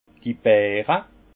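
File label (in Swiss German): "beere", alsace Haut-Rhin; vin; grains de raisin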